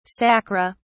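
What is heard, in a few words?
"sacra", English, British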